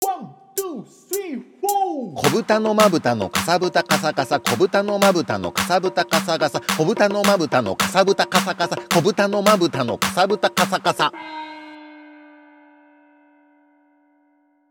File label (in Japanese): 早口言葉リズム（中級編）